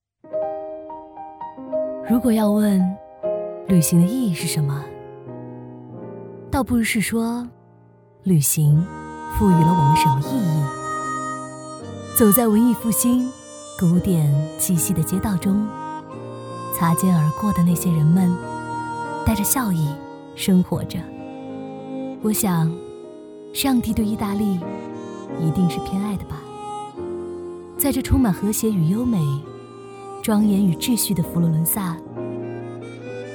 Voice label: 女 独白

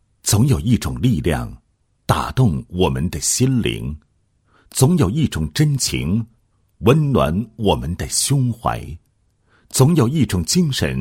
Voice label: 男 人物 情暖校园人物展播

